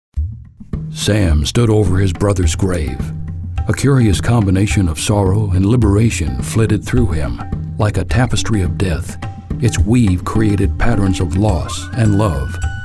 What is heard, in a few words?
audiobook